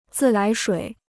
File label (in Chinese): "自来水", Chinese